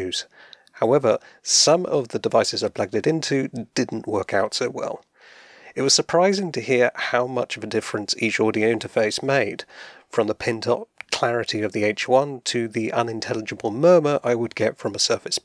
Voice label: Antlion ModMic Wireless Test Sample